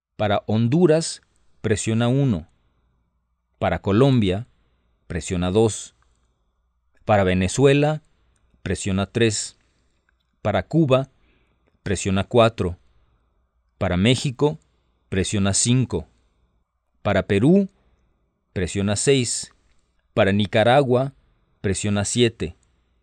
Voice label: Spanish, hotline